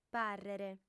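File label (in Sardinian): "pàrrere", female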